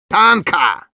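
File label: Medic thanks, Russian; «Danke!» (Перевод: «Спасибо!»)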